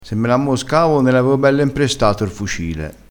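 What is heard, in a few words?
"ammoscassi", Italian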